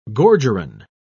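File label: "gorgerin", English, British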